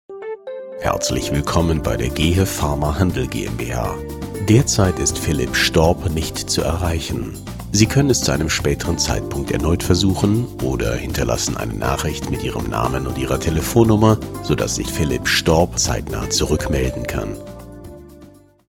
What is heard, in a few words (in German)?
mailbox mobilbox ansage; deutsch